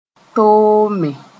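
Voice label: "toome", Mooré; be bitterbe difficult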